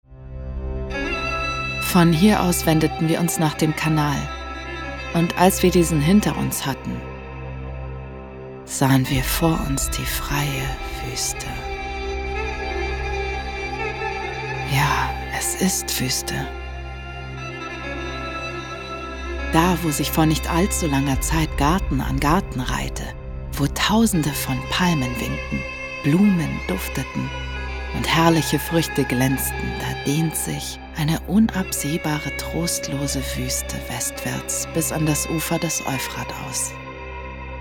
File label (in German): Reportage; Wueste